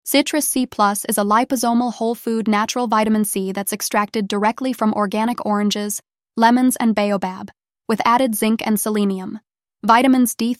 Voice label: Voiceover; Oshun Health; Citrus C Plus